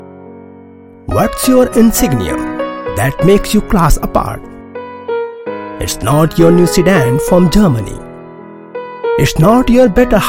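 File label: corporate promo english, male voice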